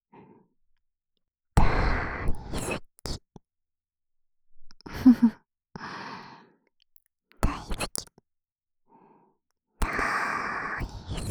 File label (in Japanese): "だいすき", ASMR; 右耳